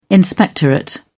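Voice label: "inspectorate", British English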